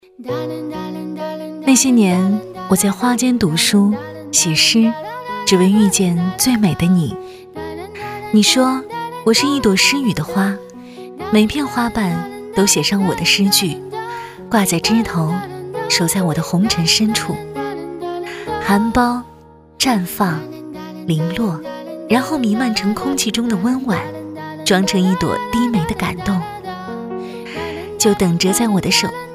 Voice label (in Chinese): 微电影旁白